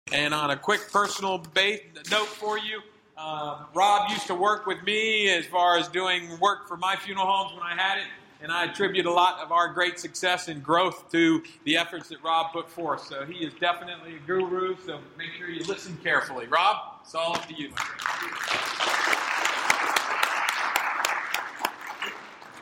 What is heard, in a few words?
Testimonial; Boardroom